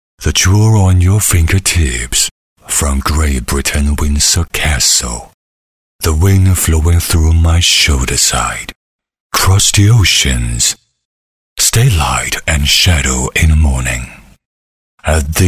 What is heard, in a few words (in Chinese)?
英男 专题 温馨浑厚